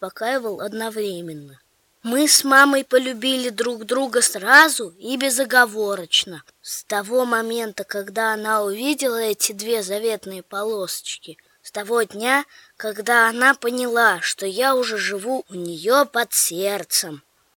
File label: child, детский голос